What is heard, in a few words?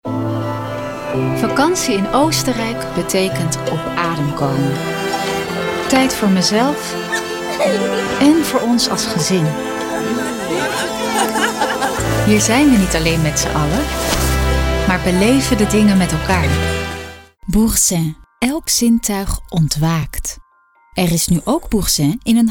audioreel commercials